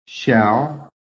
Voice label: "shall", phonetic